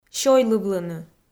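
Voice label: "сёйлывлыны" Komi, female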